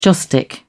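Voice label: "joss stick", British English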